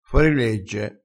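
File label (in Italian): "forilegge", vocabolario dialettale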